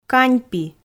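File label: "каньпи", Komi, female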